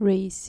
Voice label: "raise", dutch